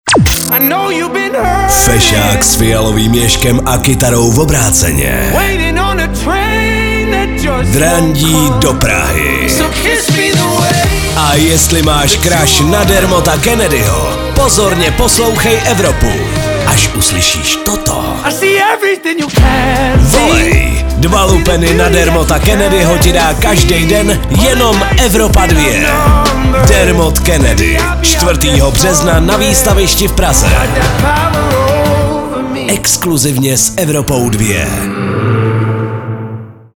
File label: promo